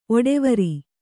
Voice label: "ಒಡವಾರ", Kannada

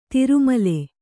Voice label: "ತಿರುಮಲೆ", Kannada

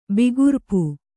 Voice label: "ಬಿಗುರ್ಪು", kannada

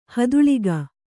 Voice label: "ಹದುಳಿಗ", kannada

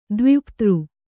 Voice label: "ದ್ವಿವಪ್ತø", Kannada

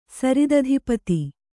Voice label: "ಸರಿದಧಿಪತಿ", kannada

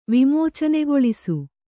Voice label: "ವಿಮೋಚನೆಗೊಳಿಸು", Kannada

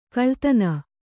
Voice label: "ಕುಲ್ಲತನ", Kannada

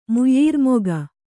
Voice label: "ಮುಯ್ಯೀರ್ಮೊಗ", Kannada

